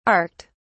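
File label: "arced", British English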